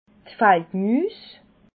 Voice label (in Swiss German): "faldmuus", alsace